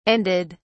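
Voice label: "ended", British English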